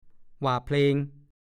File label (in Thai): "ว่าเพลง", ภาษาโคราช; ร้องเพลงโคราช